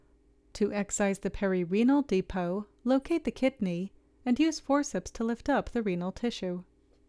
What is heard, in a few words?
Voiceover